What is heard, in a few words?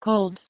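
"cold", English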